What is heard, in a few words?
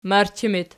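"marchi" locative, Archi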